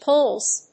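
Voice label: "puls", English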